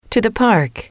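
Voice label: to the park, female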